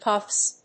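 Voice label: "cuffs", English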